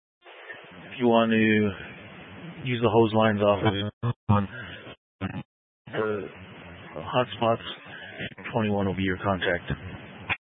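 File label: Incident Tactical 1, Scanner audio recording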